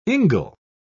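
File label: "ingle", British English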